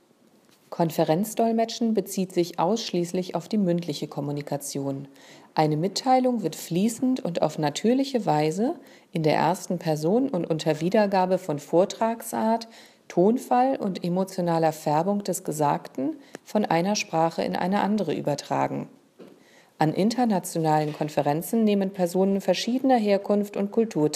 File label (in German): Sprechprobe DE